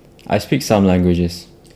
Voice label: interviewee male